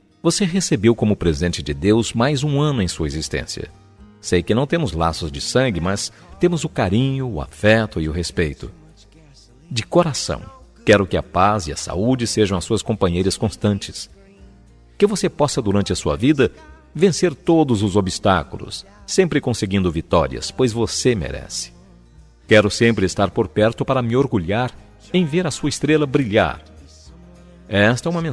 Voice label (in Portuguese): Telemensagem Aniversário Enteada, Voz Masculina